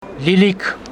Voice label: Homshetsi domatos

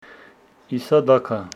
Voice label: Homshetsi it is hot

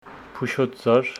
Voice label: Homshetsi acacia

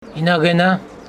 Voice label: Homshetsi he does

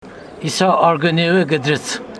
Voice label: he cut it with his teeth, Homshetsi

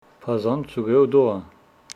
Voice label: Homshetsi the eagle will eat fish

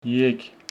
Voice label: Homshetsi three

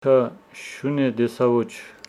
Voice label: Homshetsi no i did not see the dog

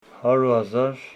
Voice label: Homshetsi a hundered thousand

